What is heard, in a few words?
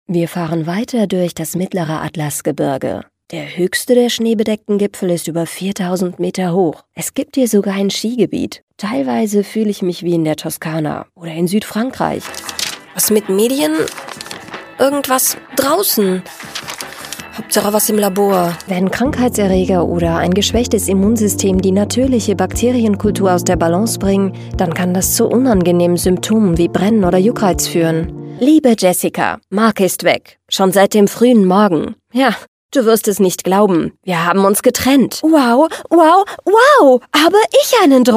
german